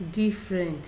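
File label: "different", Nigerian Pidgin, female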